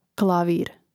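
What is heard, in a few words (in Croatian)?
"klavir", Croatian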